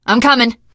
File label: im with you, teengirl; source engine; left 4 dead 2; survivor